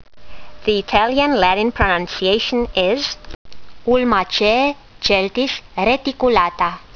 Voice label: latin; Celtis laevigata var. reticulata